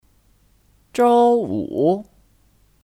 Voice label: "周五", Zhōu wǔ

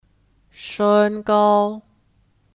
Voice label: "身高", Shēngāo; (Kata benda): Tinggi badan

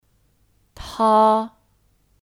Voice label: "她", Tā

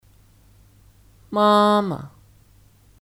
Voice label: "妈妈", Māma; Kata benda; Ibu

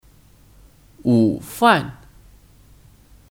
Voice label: "午饭", Wǔfàn; Kata benda; Makan siang

